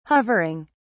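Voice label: "hovering", British English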